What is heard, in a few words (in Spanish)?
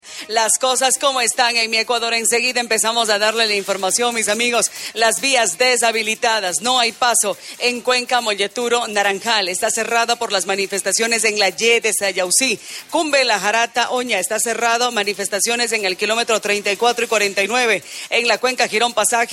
INFORMATIVO